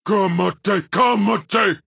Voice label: Warmonger Barrack Taunt

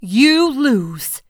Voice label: You Lose, Voices

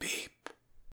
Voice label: Voices quiet; The Looker

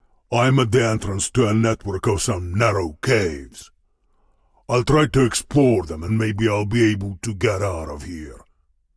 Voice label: Voice Dialog

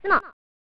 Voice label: "Tsumo!" (Female); pro mahjong kiwame; snes

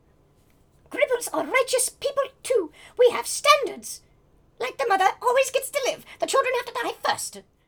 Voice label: Voices Female Beggings

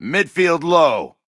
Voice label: Voices Character Male

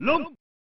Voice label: "Loonght!" (Male); pro mahjong kiwame 2; snes

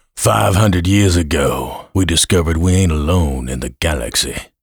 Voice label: Intro Narrate

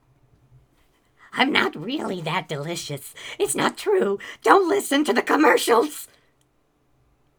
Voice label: Male Begging; a dump in the dark

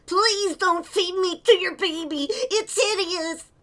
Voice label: Male Kid Beggings

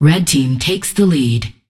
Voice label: Voices Announcer Status; unreal development kit

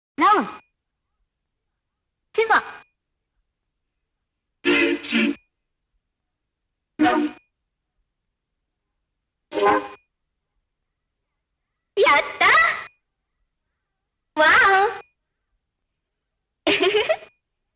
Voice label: "CLUB 90'S" voice; mahjong sound grafitti; nichibutsu 2

